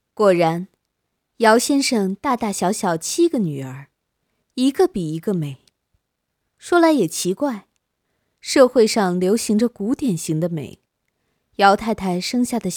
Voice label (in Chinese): 文摘朗读